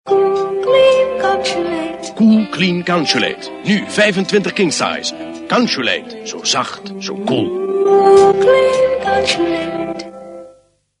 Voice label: consulate dutch